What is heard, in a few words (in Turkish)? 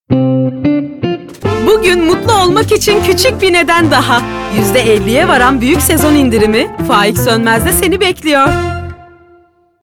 reklam; faik sonmez